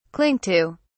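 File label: "cling to", British English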